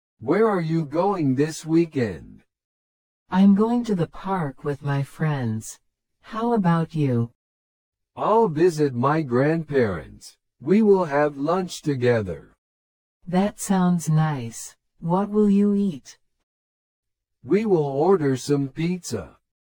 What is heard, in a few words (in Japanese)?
会話 スロー